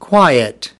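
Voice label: "quiet", English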